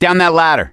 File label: misc directional, voice; gambler